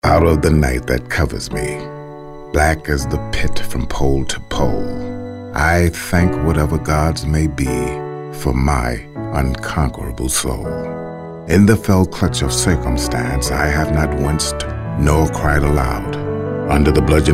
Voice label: poem